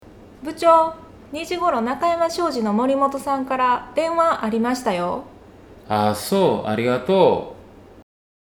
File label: "butyou", kansaiben